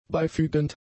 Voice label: "beifügend", German; adjectives